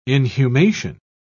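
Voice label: "inhumation", British English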